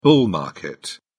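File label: "bull market", British English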